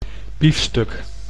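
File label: "biefstuk", Dutch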